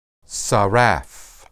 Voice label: "saraph", Hebrew